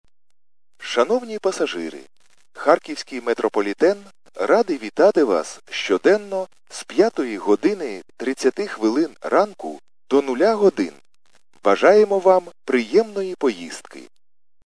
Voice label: khs st male, subway station